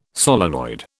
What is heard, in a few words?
"solenoid", British